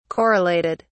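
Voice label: "correlated", British English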